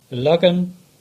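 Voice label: "Illogan" English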